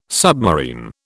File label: "submarine", British